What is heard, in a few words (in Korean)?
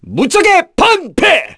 Vox Skill, kr; Clause; kingsraid